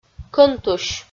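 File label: "кӧнтусь", Komi-Zyrian, female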